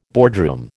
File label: "boardroom", American